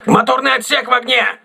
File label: voice message engine room damaged, crew ships russian